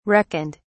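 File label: "reckoned", British English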